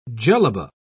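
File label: "jellaba", British English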